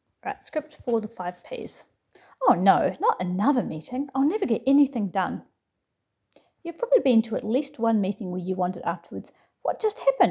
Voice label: voiceover